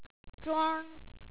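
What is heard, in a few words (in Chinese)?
"zhuangr", Chinese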